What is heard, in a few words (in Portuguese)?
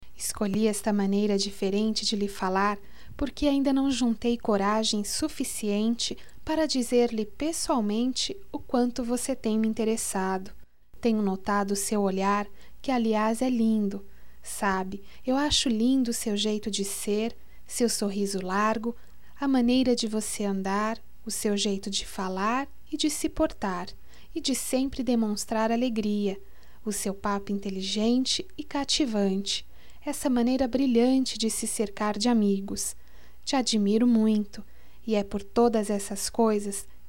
Admiradora secreta, fem